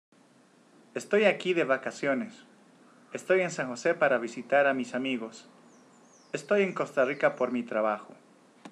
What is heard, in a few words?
Spanish Conv